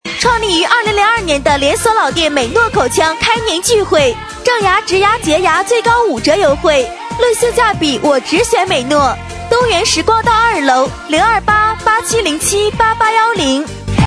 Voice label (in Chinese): 促销 激情 超劲爆的士高舞曲; 创立于2002年的连锁老店